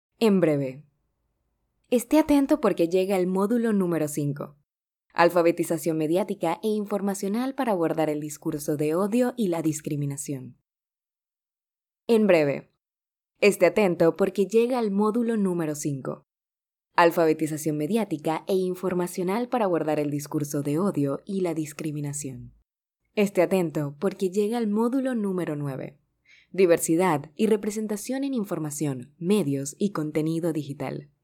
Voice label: Spanish (LA), Female

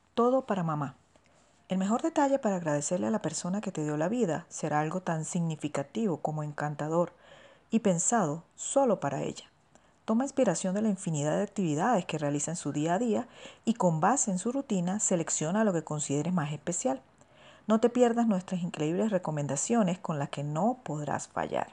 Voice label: Spanish (LA) Female

